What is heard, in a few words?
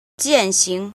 "jin", Chinese